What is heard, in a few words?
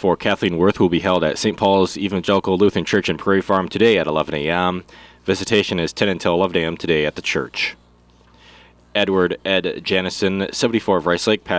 Funeral Announcements